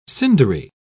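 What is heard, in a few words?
"cindery", British English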